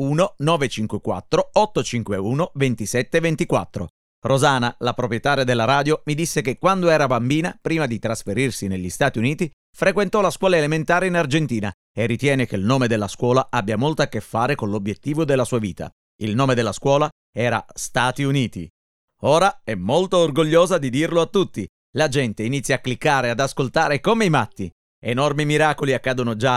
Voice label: Italian